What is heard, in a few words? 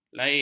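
"lain", Malay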